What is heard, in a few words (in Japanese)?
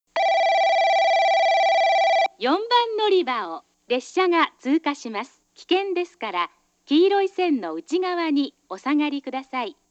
4番のりば通過放送; 福間; 実際の放送は2回流れます